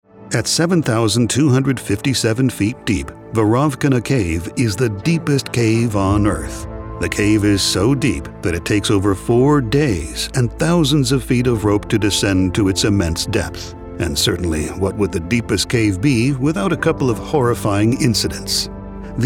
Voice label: Documentary